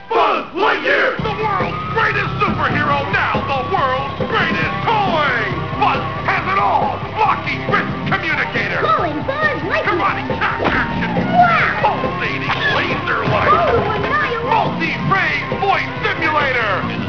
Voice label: buzz commercl